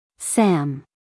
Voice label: "sam", US female; everyone-can-use-english

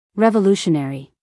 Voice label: "revolutionary", US, female; 1000 hours

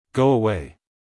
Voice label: "Go away" us male

